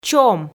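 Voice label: "чом", Komi, female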